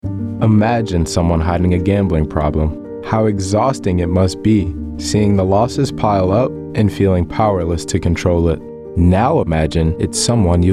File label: Male, 20s